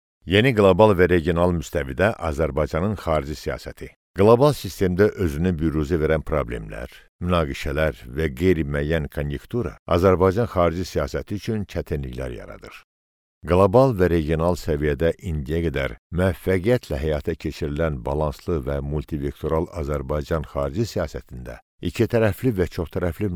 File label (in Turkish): Azerice Erkek, seslendirme